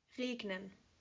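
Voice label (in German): "Regnen", German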